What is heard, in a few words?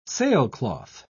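"sailcloth", British English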